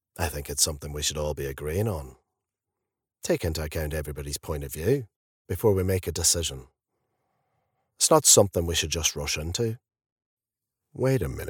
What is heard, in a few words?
Accent